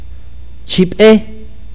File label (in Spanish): "carne", akateko